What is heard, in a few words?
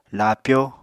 "laapyó", Apatani